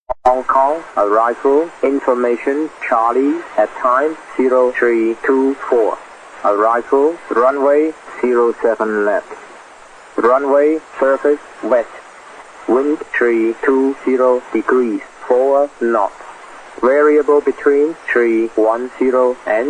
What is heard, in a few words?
ATIS; HongKong